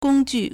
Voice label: "gong1 ju4", Chinese